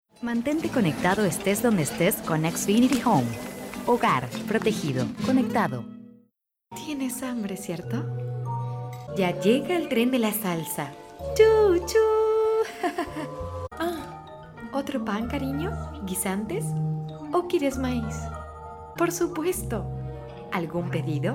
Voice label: female Argentinian; ideasonora